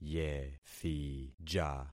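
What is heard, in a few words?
"yethija", concatenated syllables; Affixing experiment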